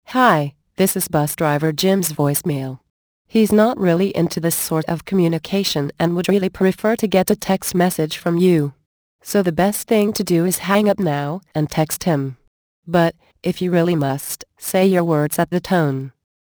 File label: voicemail